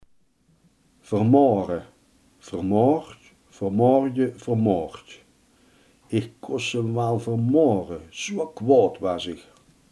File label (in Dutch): "vermoorden", limburgse